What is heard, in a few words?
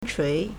"chui2", Chinese